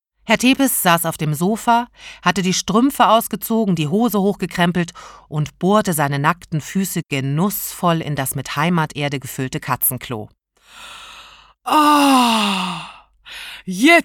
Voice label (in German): Sprecherdemo Hörbuch; Ruhig Blut Frau Etepete